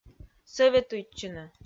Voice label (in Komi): "сӧветуйтчыны", Komi